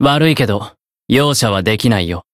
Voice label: encount, jp; dunarith